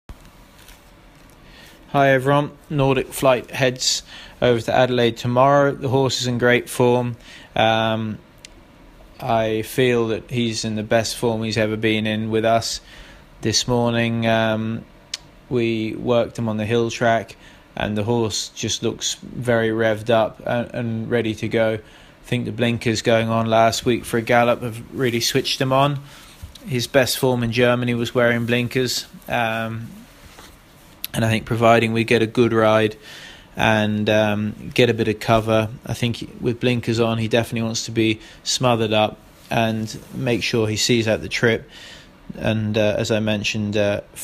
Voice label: voice note; Nordic Flight GER